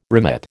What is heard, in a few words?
"remit", American